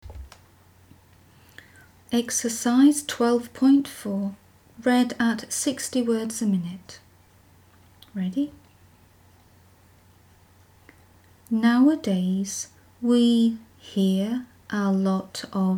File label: 60wpm; Teeline